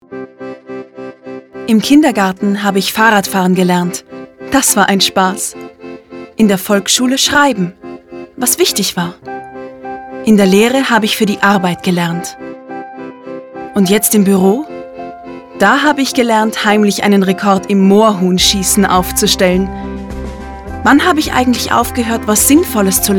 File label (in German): VoiceOver (DE); „WIFI“